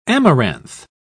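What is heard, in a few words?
"amaranth", English, British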